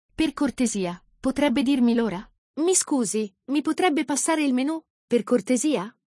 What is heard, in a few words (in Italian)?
"per cortesia", Italian